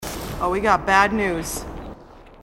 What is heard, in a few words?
marine sassy